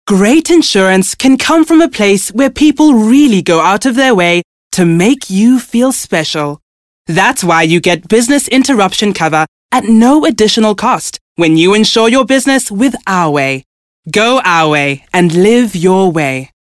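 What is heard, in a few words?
South African English, Commercial; GoLocalise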